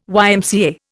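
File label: "YMCA", Indian English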